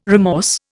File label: "remorse", Indian